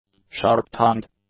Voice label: "sharp-tongued", English; adjectives